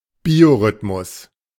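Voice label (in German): "Biorhythmus", German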